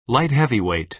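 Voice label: "light heavyweight", American English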